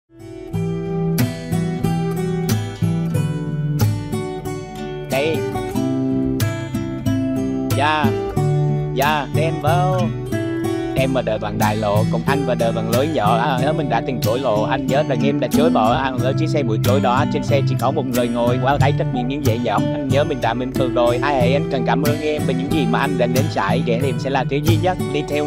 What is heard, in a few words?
Train voice